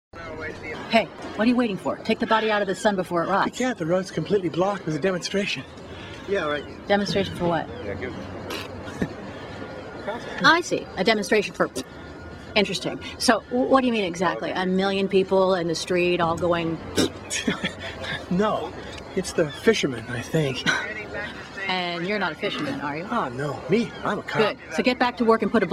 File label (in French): doublage; No Limit; Anne Girouard